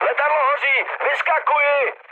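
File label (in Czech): Czech on fire, mood medium; speech; War Thunder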